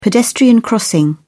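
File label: "pedestrian crossing", English, British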